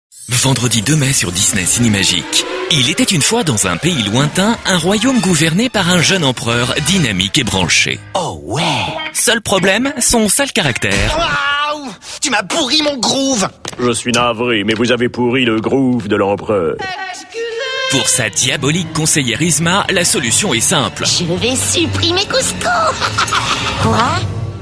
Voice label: voix off homme habillage; DISNEY CINEMAGIC kuzco

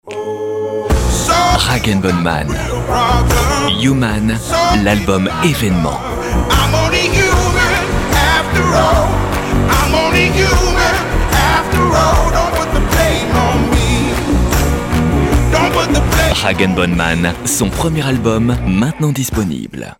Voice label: promo, Voix off disque

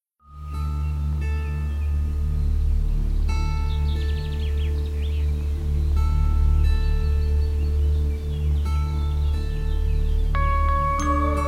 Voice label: voix off documentaire, homme; SEASON

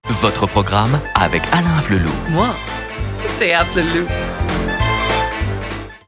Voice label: droit classique, comedien voix off parrainage; AFFLELOU droit, classique

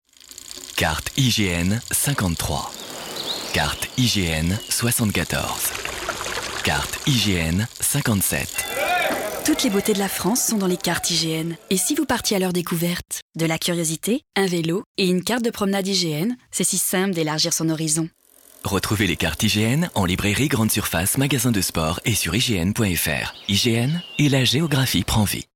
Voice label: elegant, pose, voix off publicité; IGN

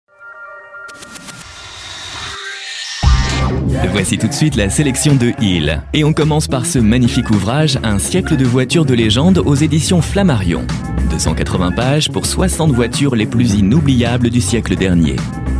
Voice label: voix off emission, homme; ILS Stylia

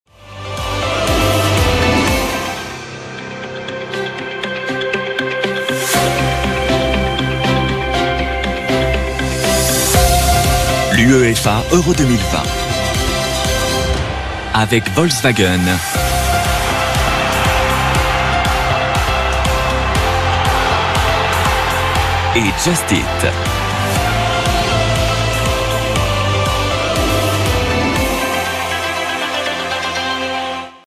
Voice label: positive tonique, voix off publicite; UEFA EURO 2020

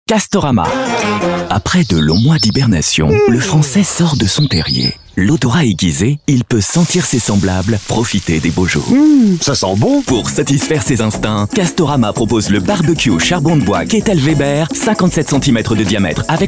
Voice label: malicieux efficace, voix off publicité; CASTORAMA

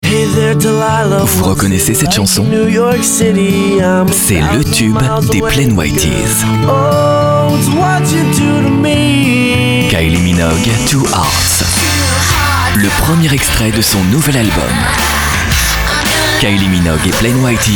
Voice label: vendeur, voix off disque, homme

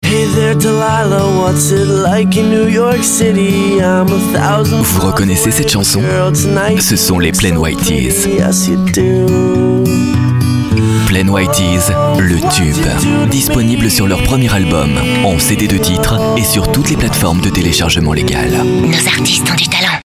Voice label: chaleureux, Voix off disque

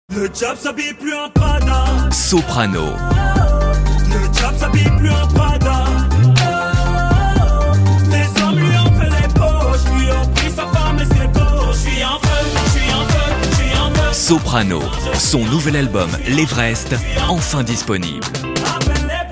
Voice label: promo, voix off disque, homme

